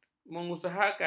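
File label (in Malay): "mengusahakan", Malay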